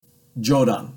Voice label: "jodan", basic japanese words; Basic Japanese Terms